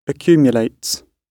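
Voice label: "accumulates", British English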